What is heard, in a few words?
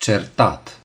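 "quarrel", Romanian; gcompris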